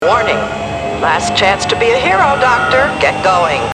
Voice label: warning last chance to be a hero doctor, computer voice